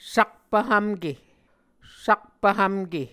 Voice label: "sakpv hvmke", Muscogee

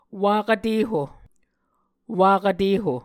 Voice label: "wakv teho", Muscogee

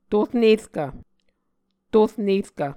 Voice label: "tor nerkv", Muscogee

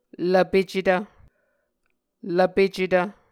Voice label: lvpecetv, muscogee

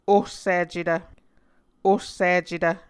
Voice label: ossicetv, muscogee

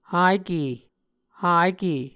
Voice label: "hake", Muscogee

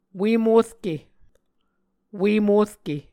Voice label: "ue morke", Muscogee

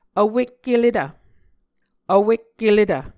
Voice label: "vwekkeletv", Muscogee; Mvskoke Words with Repeated Consonants; Onvkv Ohhvyayices; A Dictionary of Creek/Muskogee; SIL International

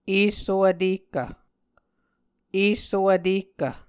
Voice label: "esso vtehkv", Muscogee

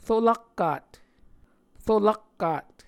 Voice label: "rolakkat", muscogee; A Dictionary of Creek/Muskogee; SIL International

